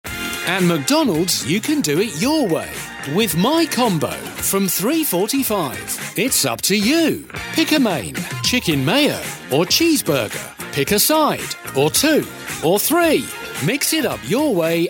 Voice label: radio ads